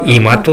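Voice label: "maeto", Minamiyamagata dialect Japanese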